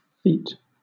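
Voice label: "feat", British English; pronunciations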